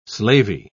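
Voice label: "slavey", British English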